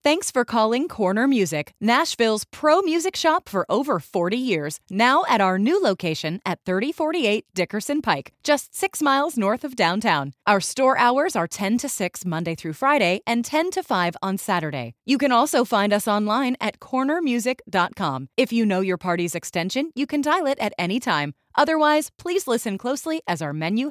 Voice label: Telephony Sample